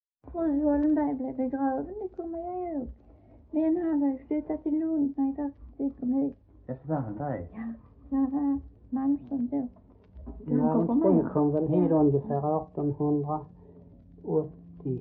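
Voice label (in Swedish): Äspö Skåne, Svenska sagor dialekter; Folkminnen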